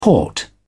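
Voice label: "port", British English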